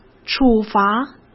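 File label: "处罚", Mandarin Chinese; chinese flashcards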